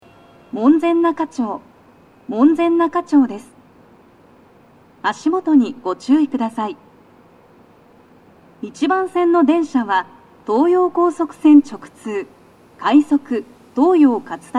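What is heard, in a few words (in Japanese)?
到着放送, 女声, 両面箱型での収録です。; 放送は「快速 東葉勝田台行き」です。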